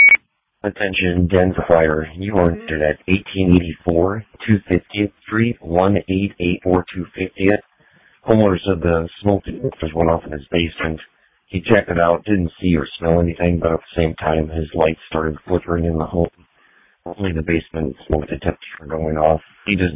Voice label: Fire, dispatch